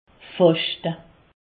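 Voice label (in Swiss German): "faschte", Alsatian; jeûner; medecine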